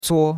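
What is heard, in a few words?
"cuo1", Chinese; Cours-de-Chinois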